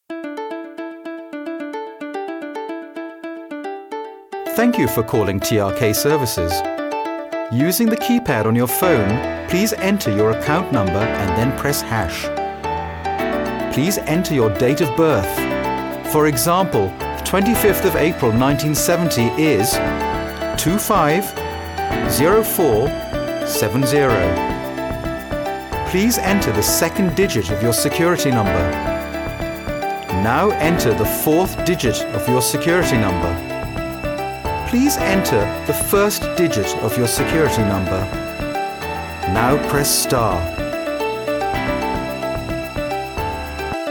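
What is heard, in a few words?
English M IVR, male; ivr voice prompts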